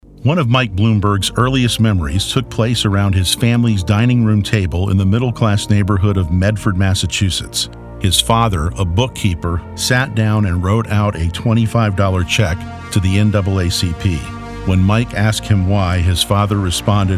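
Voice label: political, Voiceover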